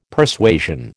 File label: "Persuasion", American